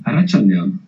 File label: "good afternoon", Basque